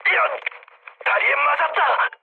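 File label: Korean damaged pilot mood med, speech; War Thunder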